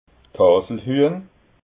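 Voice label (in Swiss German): "haselhuehn", Alsatian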